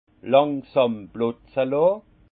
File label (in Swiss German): "langsamplotze", alsace; laisser tomber car déborde; vin